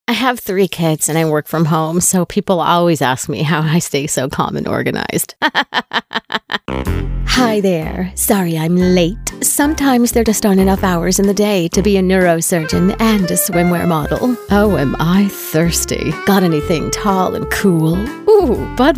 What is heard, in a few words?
Commercial